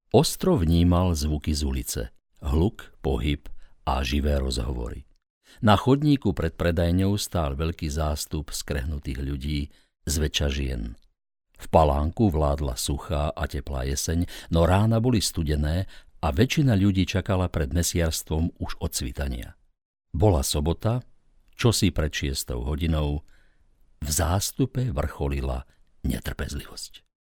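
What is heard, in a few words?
Slovak, male, narration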